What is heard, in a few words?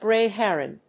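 "grey heron", English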